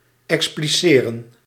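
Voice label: "expliceren", Dutch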